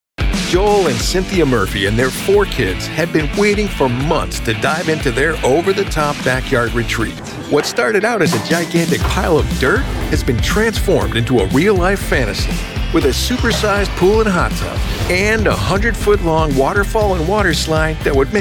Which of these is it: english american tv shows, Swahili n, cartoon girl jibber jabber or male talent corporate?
english american tv shows